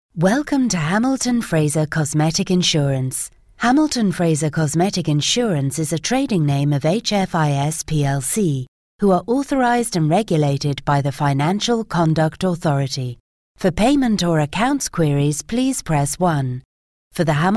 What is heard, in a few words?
Phone